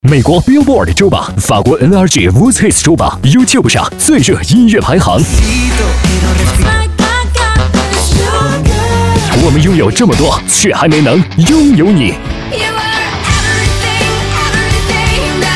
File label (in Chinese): 男 南京招商